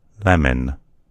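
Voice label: "lemon", US English; gcompris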